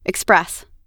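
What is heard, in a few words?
"express", US English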